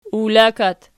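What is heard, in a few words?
"uwlakat" nominative, Archi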